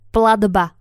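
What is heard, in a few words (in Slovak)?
"platba", Slovak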